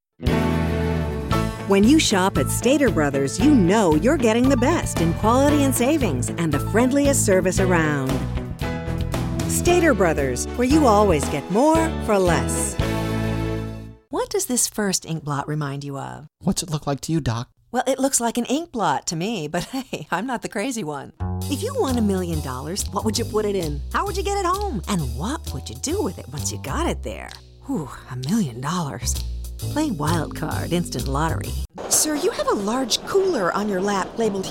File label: commercials